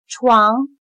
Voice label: "床", Chino

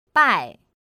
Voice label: "bài", Chino

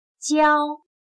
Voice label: "浇", Chino

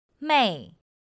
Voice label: "mèi", Chino

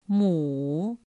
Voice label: "mǔ", Chino

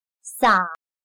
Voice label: "洒", Chino

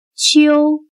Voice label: "秋", Chino

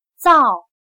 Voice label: "皂", Chino

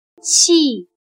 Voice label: "细", Chino

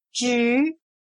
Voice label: "植", Chino

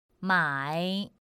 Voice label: "mǎi", Chino

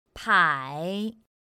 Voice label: "pǎi", Chino

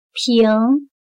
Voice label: "平", Chino

